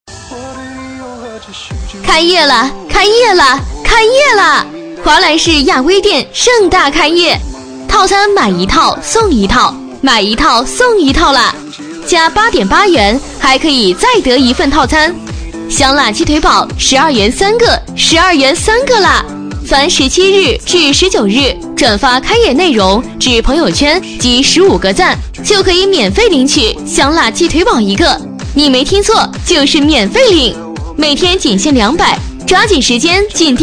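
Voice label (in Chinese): 女促销; 华莱士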